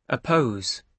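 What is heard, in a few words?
"oppose", British English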